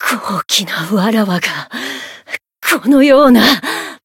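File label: win barely, Japanese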